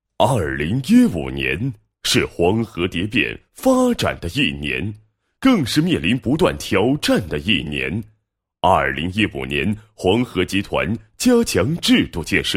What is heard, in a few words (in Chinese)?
男 工作总结 拜年以及 总结; 黄河集团拜年以及2015年总结